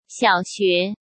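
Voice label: "xiaoxue", Chinese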